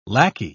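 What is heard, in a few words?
"lacquey", British English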